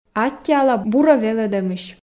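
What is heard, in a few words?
"аттьӧала бурӧ велӧдӧмысь", Komi, female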